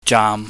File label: "jaam", chinese